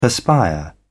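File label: "perspire", British English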